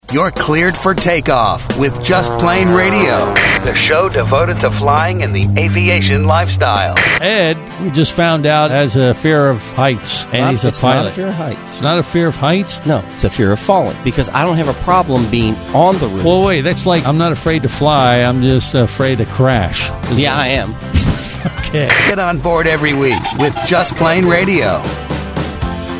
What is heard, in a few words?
Promo